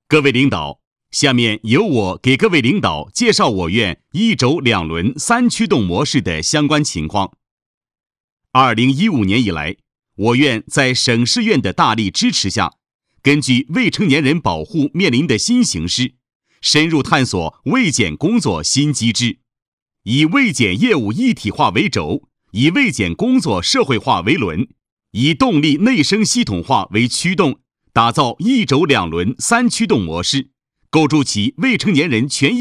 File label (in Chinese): 汇报 稳重; 未成年权益保护工作汇报